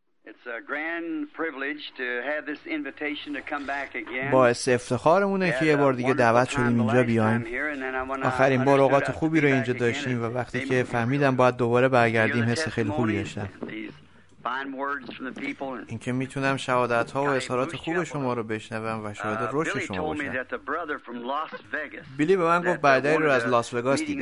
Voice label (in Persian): Farsi Voice Over; RAPTURE